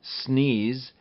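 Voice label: "sneeze", English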